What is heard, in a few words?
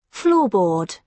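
"floorboard", British English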